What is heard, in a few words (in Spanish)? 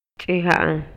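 Maya, Yucatán, male; INALI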